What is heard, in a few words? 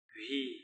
"whii", Palula